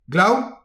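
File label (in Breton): "glaou", Breton; petit precis de prononciation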